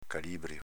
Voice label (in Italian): "calibrio", dialetto veneto; s.m. equilibrio